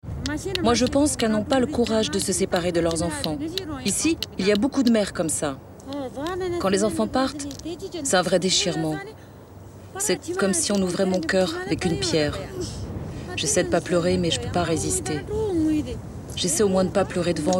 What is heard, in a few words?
voiceover; France 2 : Rendez-vous en terre inconnue